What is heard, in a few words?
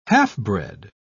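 "half-bred", British English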